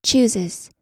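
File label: "chooses", American English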